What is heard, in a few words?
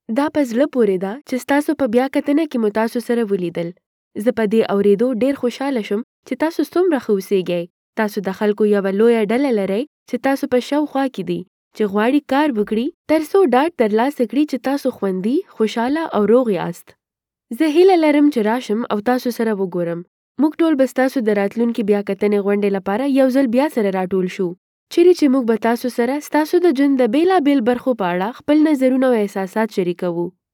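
Pashto Pakistan female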